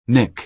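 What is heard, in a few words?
"nick", American English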